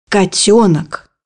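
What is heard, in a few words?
"kitten", Russian; bukvar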